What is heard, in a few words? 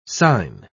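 "sine", spoken word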